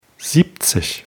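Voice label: "siebzig", German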